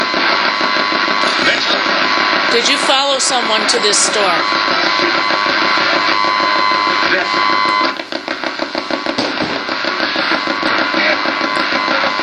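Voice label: radio voices, recorded "live"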